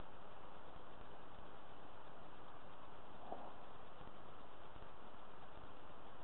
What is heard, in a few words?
child mumbling